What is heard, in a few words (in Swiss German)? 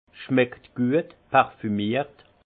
"schmeckt guet", alsace; vin